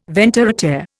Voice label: "ventre Å terre", Indian